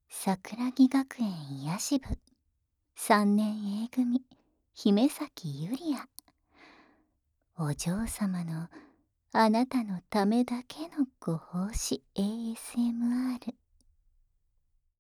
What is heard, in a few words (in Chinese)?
标题朗诵